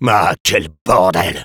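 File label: Sniper jeers, french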